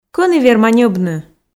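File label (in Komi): кӧні верма ньӧбны, Komi, female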